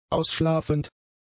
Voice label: "ausschlafend", German; adjectives